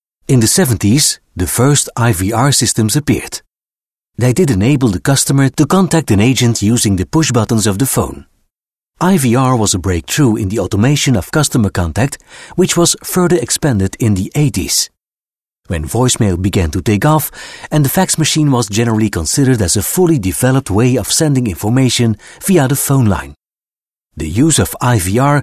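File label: Flemish English; Male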